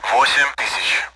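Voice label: Speech Sound Russian Common AWACS Numbers; DCS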